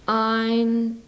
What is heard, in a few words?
"ain", East Frisian